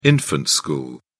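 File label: "infant school", British English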